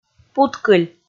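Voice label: "путкыль", Komi, female; kpv audio dict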